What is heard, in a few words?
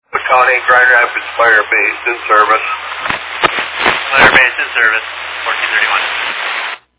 Fire Dispatch